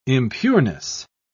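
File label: "impureness", British English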